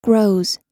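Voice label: "grows", American English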